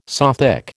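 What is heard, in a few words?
"Sothic", American English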